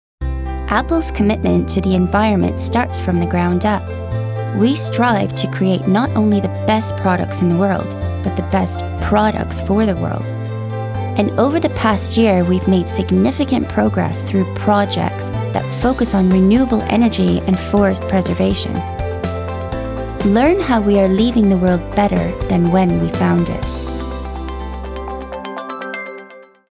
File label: female English slight Canadian accent corporate narration